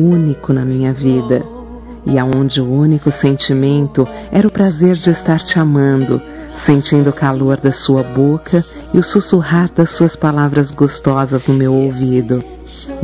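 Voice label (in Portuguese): Rom sonhei com voce, feminino; Telemensagens; Românticas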